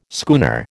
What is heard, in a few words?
"schooner", American